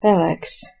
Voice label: "peleks", Latvian

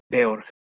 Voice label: "beorg" Anglo Saxon

